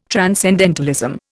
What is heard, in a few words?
"transcendentalism", Indian